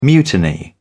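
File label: "mutiny", British English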